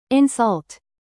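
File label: "live", verb, British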